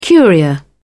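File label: "curia", British English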